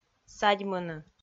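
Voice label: "садьмыны", Komi, female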